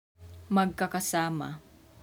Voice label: Tagalog